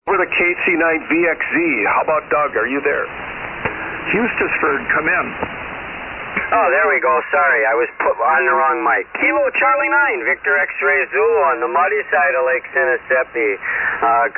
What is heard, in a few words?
Yaesu FT-101ZD 148 Mic; Hustisford, Wi.